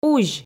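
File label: "узь" Komi, female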